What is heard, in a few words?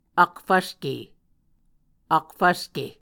"akfvske", Muscogee